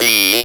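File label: robot voice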